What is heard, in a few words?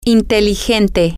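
intelligent, spanish, female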